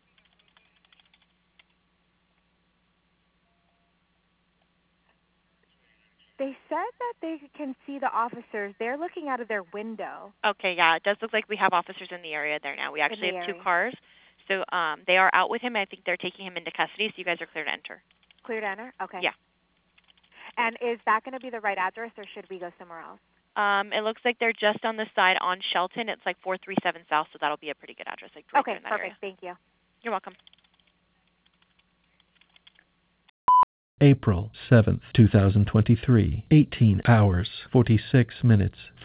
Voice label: Phone Call; OCFA